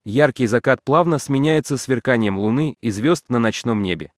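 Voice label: Russian, Russia; iOS Sounds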